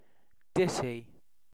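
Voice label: "ditty", British English; pronunciations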